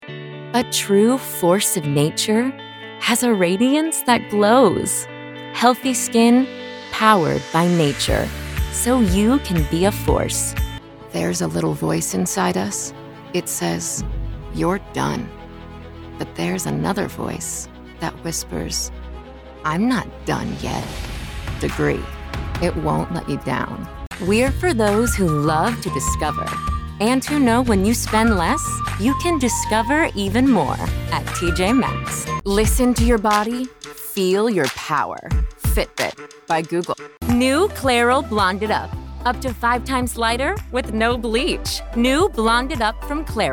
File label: commercial vo; demo